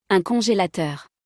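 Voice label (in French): "Un congelateur", French; vocabulaire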